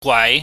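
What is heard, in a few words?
"gwai", Cantonese, mid rising tone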